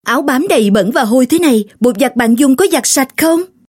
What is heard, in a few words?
South Female Vietnamese